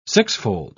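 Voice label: "sixfold", British English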